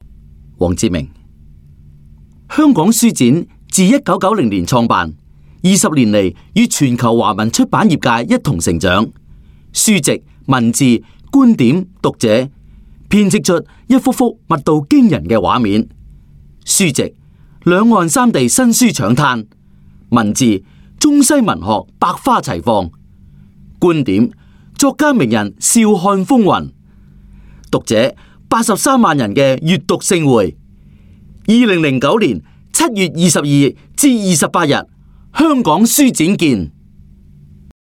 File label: Cantonese Male